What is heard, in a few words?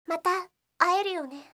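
"mata aeru yone", Japanese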